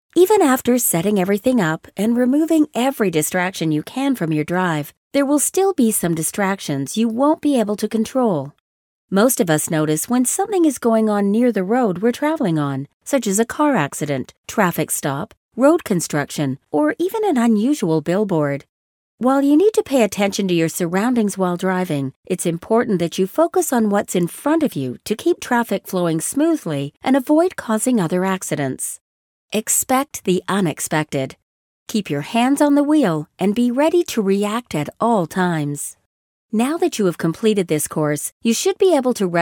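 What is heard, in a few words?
e-learning, American English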